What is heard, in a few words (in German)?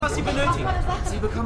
Evakuierte Frau; The 100